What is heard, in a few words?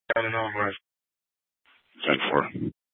PD Dispatch